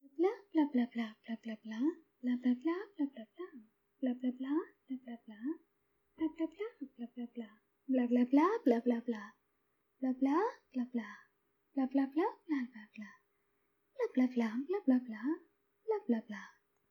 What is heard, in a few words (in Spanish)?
Mujer Hablando